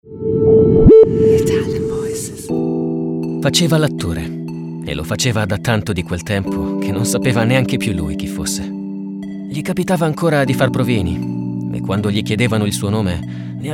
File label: ITALIAN VOICES, male voices; Naked Reel